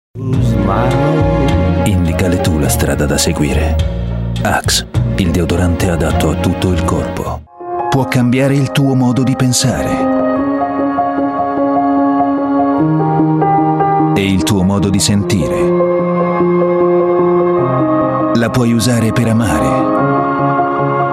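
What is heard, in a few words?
Italian; GoLocalise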